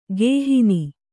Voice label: "ಕುಹನ", Kannada